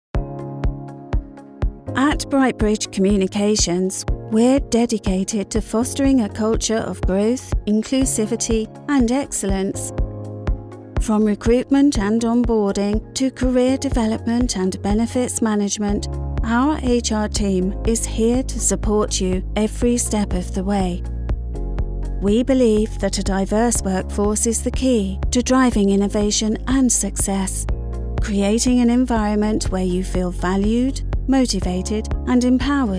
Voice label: narrative